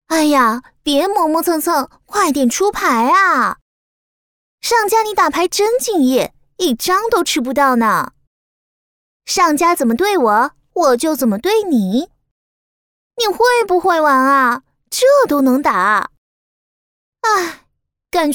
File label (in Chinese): 女 棋牌游戏 短语